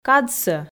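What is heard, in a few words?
"кадсӧ", Komi, female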